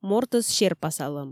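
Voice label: "мортӧс серпасалӧм", Komi, female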